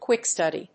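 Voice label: "quick study", English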